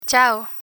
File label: "ciao", Spanish; saying goodbye; learn spanish